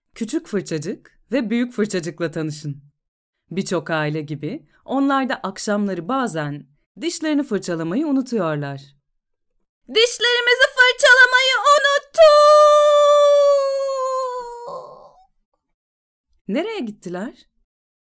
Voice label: CHILDREN ELEARNING

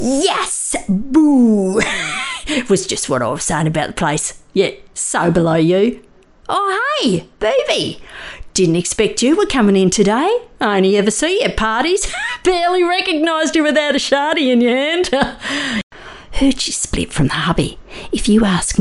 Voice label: Aussie character voice

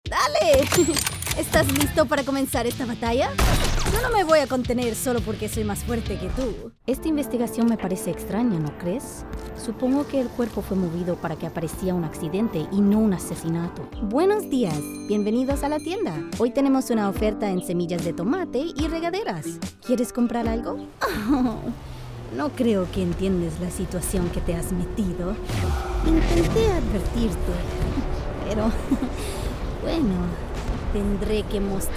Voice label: Spanish Video Game

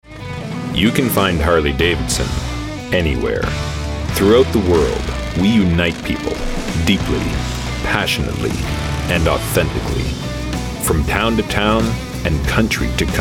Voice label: Corporate Narration, en-US

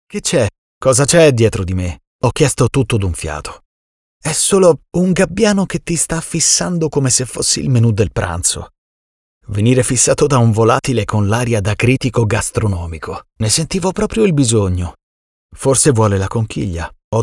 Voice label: Comedy Audiobook Podcast Narration; en-US

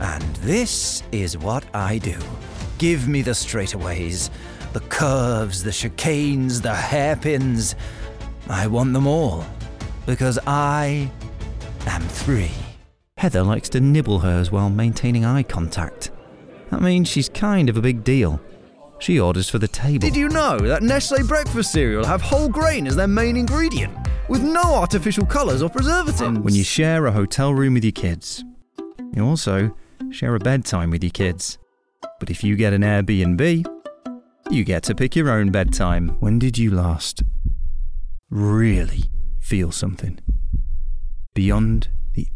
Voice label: Standard British

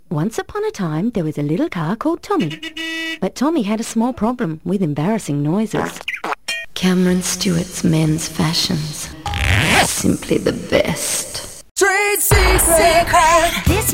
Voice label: Voice Actor; BigMouthVoices

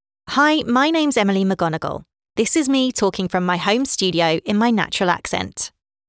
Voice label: Natural accent

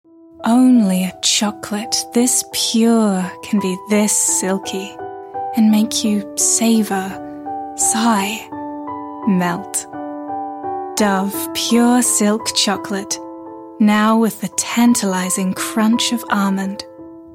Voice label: Commercial

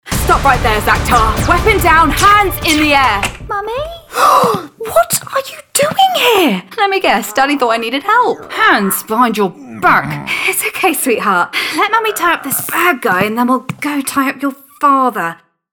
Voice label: Animation; AVERY

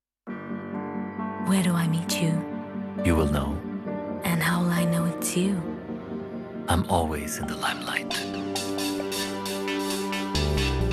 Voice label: tv ad; Hyundai i20

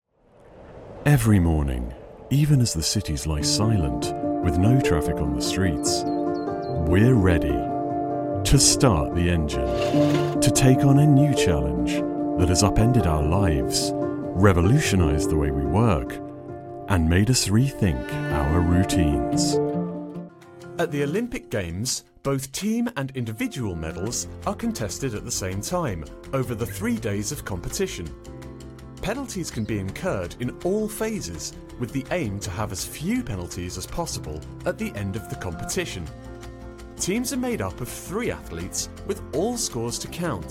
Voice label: Corporate Explainer

